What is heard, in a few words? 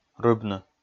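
"рыбны", Komi, male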